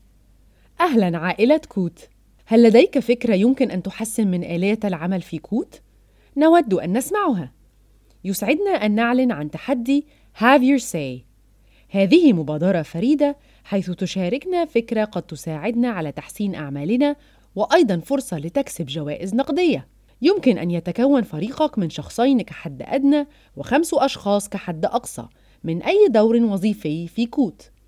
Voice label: Corporate